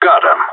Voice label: enemy down, radio; GSG9